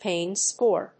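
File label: "pain score", English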